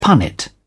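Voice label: "punnet", British English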